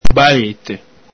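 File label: "balete", Veneto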